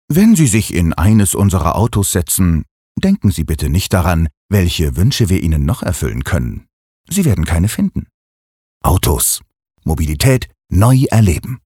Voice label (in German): Werbung Freundlich